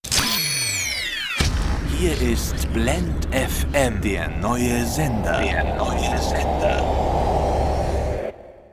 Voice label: station voice FM jingle; Muttersprache Deutsch; Sprecher in Berlin